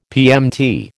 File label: "PMT", American